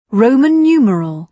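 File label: "roman numeral", British English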